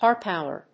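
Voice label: "harpooner", English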